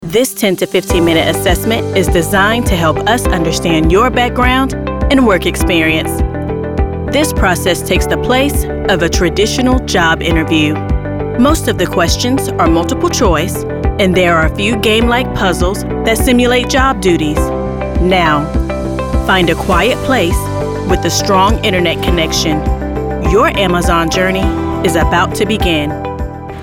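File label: 20s30s Female African American Narration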